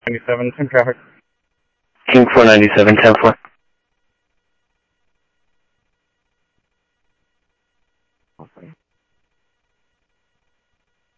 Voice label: South Scottsdale MCSO EAST Sheriff EastCnty, scanner audio trunk